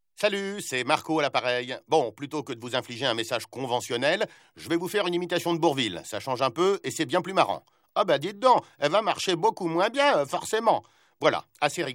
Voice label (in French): Repondeur Comique